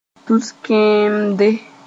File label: "tus-kẽemde", moore